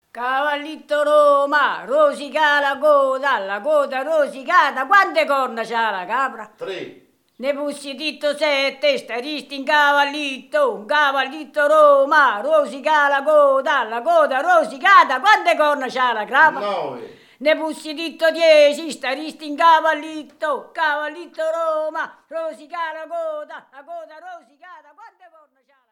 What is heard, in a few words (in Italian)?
Filastrocca